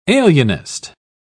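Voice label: "alienist", British English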